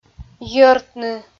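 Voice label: "йӧртны", Komi, female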